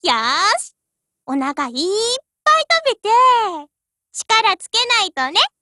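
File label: BLACK ACTION Japanese, Voice; GF Alarm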